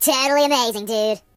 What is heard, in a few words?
"Amazing", Surfer; hedgewars; HedgewarsMobile